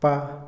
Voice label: "caliente", Otomí, hombre; Percepción; INALI

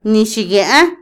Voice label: "tampoco", Otomí, mujer; Vocabulary; INALI

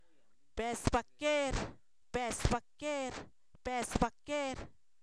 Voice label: avispa, Zapoteco, san miguel albarradas; animales; INALI

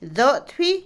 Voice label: azúcar, Otomí, mujer; Comida; INALI

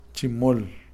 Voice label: juntar, Kakchikel

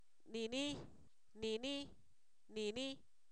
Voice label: aquel, Zapoteco, san miguel albarradas; adverbios

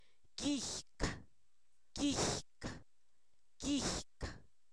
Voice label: cabeza, Zapoteco, san miguel albarradas; cuerpo; INALI

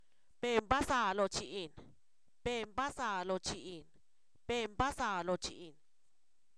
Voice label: Zapoteco, san miguel albarradas; perezoso; adjetivos

